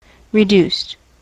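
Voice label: "reduced", US English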